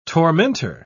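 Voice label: "tormentor", British English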